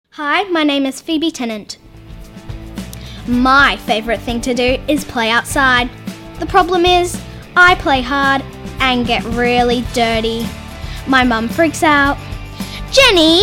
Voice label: VO Demo; Actor, Voiceover; Location Perth